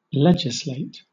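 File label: "legislate", British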